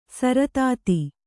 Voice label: "ಸರತಾತಿ", Kannada

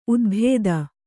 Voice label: "ಊದಬತ್ತಿ", kannada

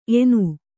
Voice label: "ಎಣ್ಣೆ", kannada

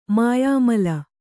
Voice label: "ಮಾಯಾಮಲ", Kannada

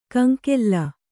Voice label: "ಕಂಗೋಲು", Kannada

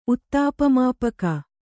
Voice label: "ಉತ್ತಾಪಮಾಪಕ", Kannada

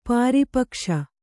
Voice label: "ಪಾರಿಪಕ್ಷ", Kannada

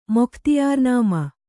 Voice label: "ಮೊಖ್ತಿಯಾರ್‍ನಾಮ", Kannada

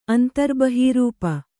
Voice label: "ಅಂತರ್ಬಹೀರೂಪ", Kannada

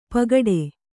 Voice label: "ಪೋಗೊಡು", Kannada

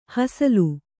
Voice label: "ಹಸಲು", Kannada

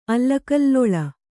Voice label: "ಅಲ್ಲಕಲ್ಲೊಳ", Kannada

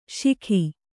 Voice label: "ಶಿಖಿ", Kannada

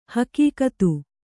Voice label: "ಹೆಗ್ಗತ್ತು", Kannada

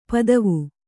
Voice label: "ಪದುವ", Kannada

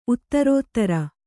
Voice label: "ಉತ್ತರೋತ್ತರ", Kannada; uttarōttara

